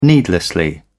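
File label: "needlessly", British English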